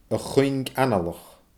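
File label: "a chuing analach", Scottish Gaelic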